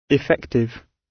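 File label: "effective" British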